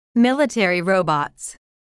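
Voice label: "military robots", English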